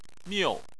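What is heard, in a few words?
"miu", Chinese, fourth tone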